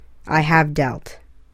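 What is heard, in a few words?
"I have dealt", English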